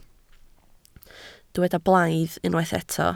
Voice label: "blaidd", Welsh